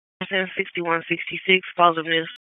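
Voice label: Police Northeast District Dispatch; Raleigh; wake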